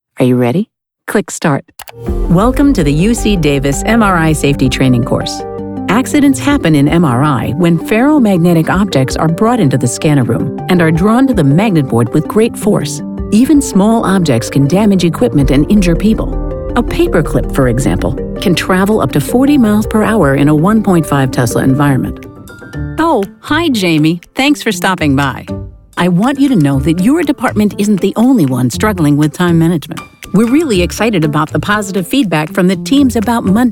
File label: eLearning